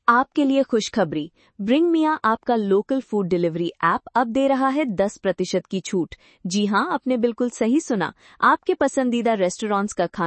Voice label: Hindi Voice